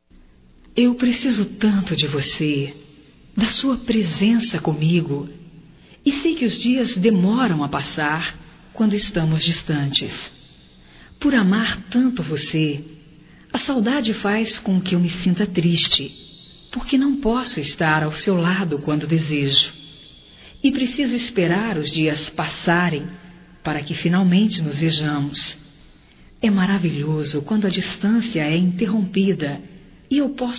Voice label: Feminino; Amor a Distância Feminino

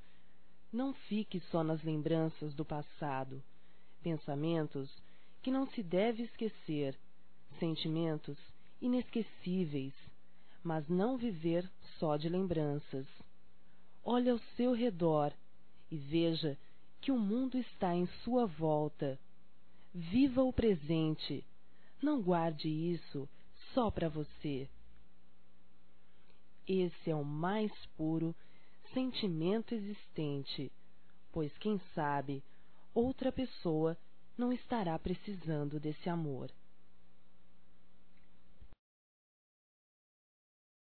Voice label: Abra Seu Coração Feminino; Românticas

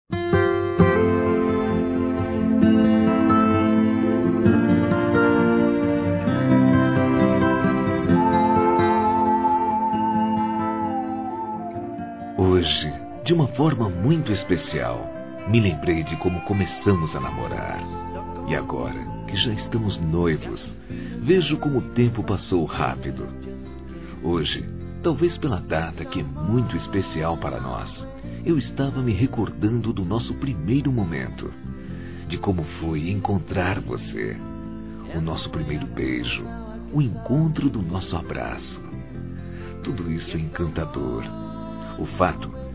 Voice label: Romantica Aniversário Noiva Masculino, Telemensagens

